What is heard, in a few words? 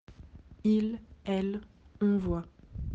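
"voir", French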